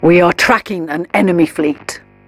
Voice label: advisor notification hostile fleet detected, militarist; Stellaris; ethics